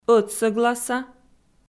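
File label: "ӧтсӧгласа" Komi, female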